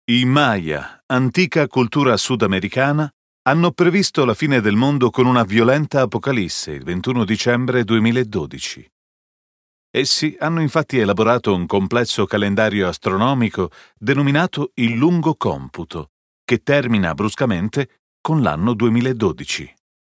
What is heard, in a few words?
it documentary